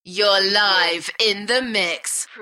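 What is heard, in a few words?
UK female, DJ Vocal; beanstalk audio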